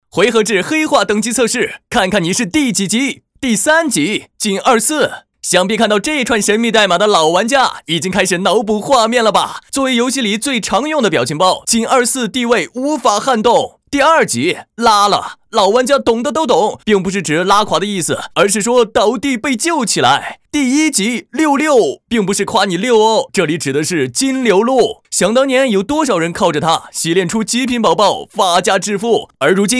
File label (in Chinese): 男 游戏 黑话; 梦幻西游